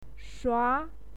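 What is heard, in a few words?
"shua", Mandarin Chinese, second tone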